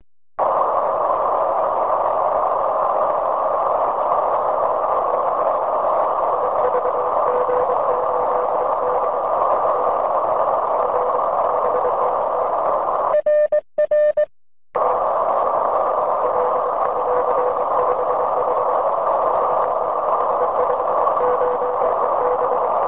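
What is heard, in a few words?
amateur radio contact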